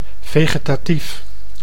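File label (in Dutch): "vegetatief", Dutch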